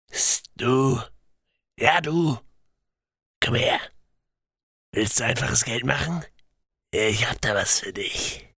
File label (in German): Hörprobe Videospiel Schmierig